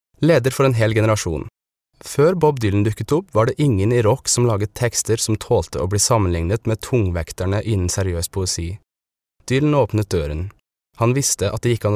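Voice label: Norwegian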